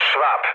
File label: enemy detected mood low, speech pol; War Thunder